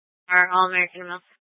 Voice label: Tempe PD, scanner; Rgnl Wireless Co-op (RWC)